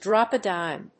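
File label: "drop a dime", English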